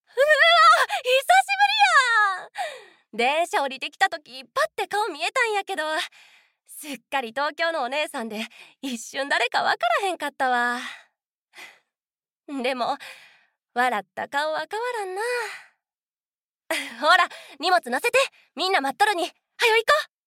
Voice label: 20歳方言（三重）

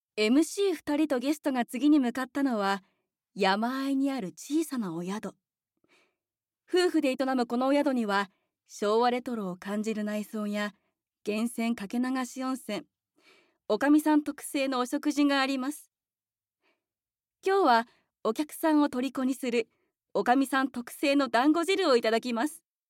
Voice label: ナレーション

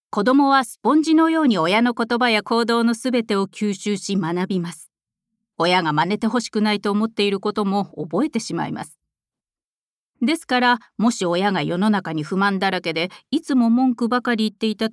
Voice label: NA自己啓発

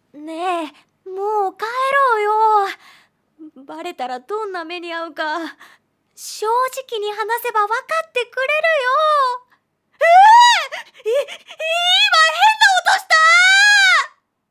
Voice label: アニメ 弱気な男の子 8歳くらい

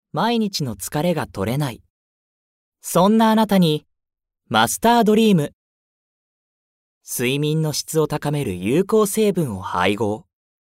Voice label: ナレーション

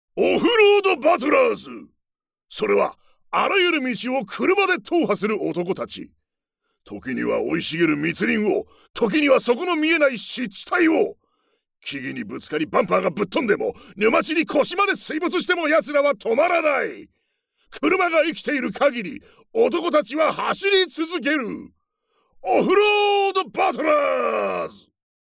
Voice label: ナレーション

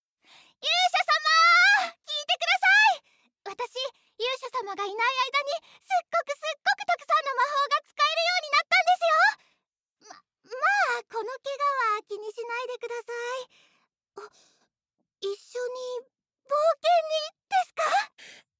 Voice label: 10代後半異世界系

